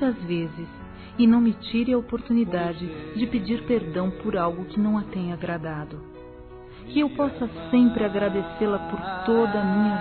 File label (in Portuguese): ANIV. NEUTRO F, Gospel, fem; Telemensagens; Telemensagens 2017